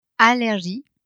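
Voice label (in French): "allergies" French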